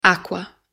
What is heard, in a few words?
water in italian